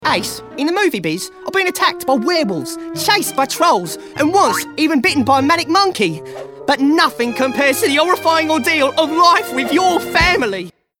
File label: Cockney